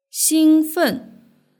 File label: "xingfen", Chinese